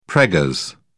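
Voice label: "preggers", English, British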